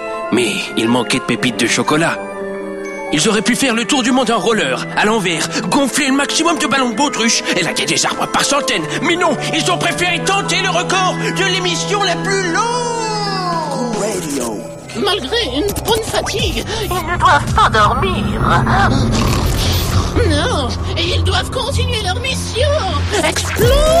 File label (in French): personnages pubs radio; accents imitations